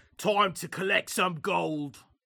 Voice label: Dwarf Enroute Agressive